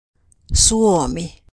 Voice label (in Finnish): Suomi; sprakradet